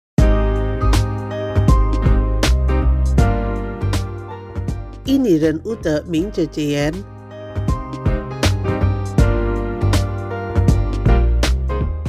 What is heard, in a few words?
Mandarin; Muhajir Effendy